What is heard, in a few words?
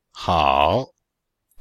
"hao3", Chinese